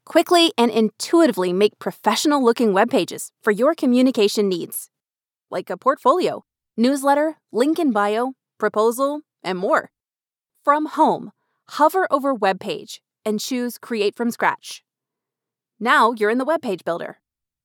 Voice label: english american explainer videos

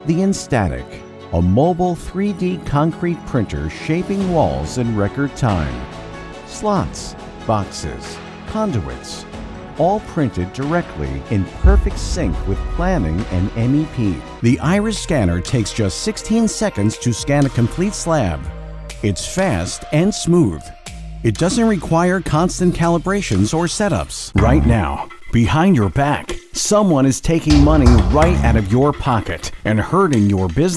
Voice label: english american corporate videos

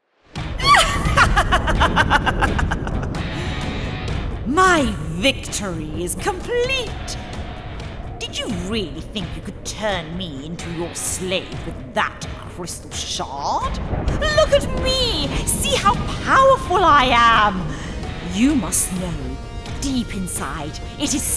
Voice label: english british animation

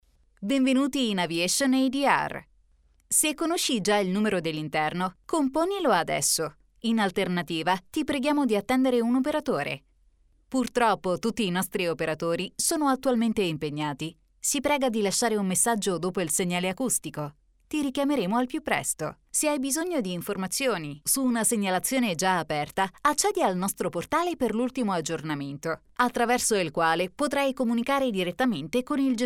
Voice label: italian ivr

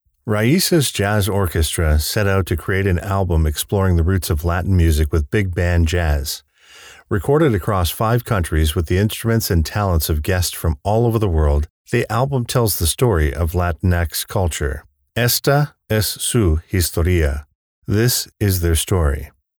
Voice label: English Canadian documentaries

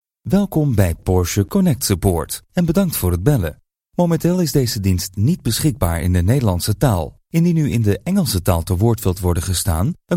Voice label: dutch ivr

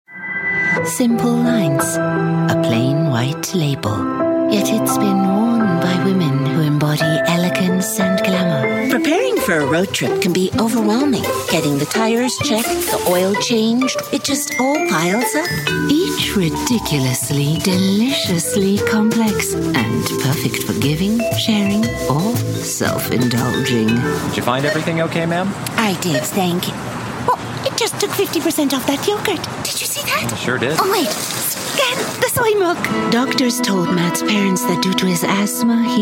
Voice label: english neutral commercial

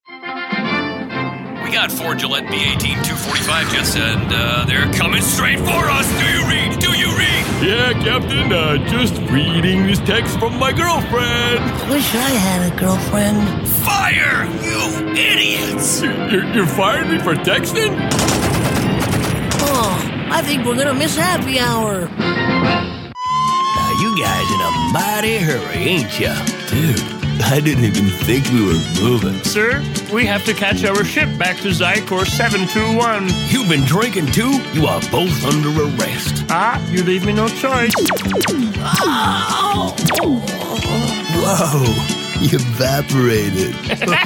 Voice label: english canadian animation

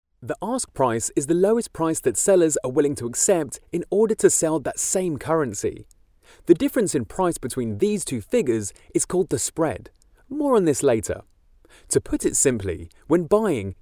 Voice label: english british training e learning

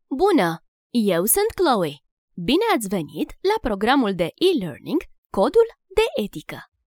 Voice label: Romanian e-learning

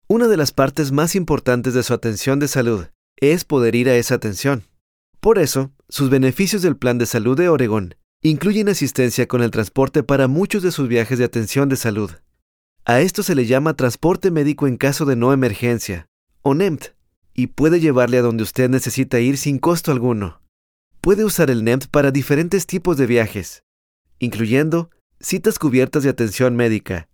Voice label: spanish latin american medical narration

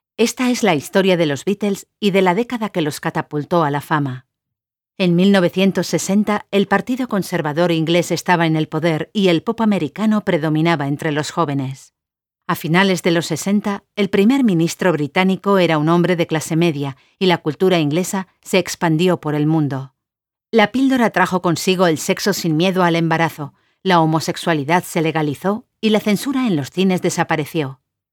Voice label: spanish documentaries

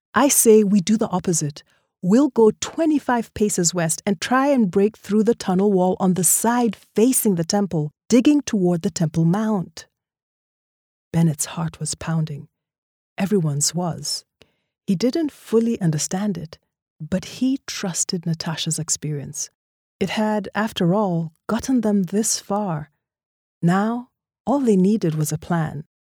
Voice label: english african narration

